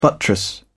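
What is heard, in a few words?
"buttress", English, British English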